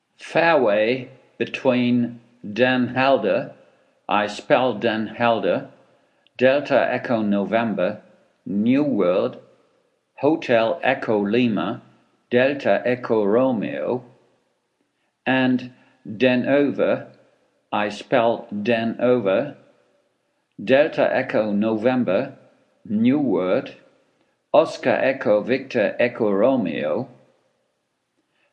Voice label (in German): Seefunktext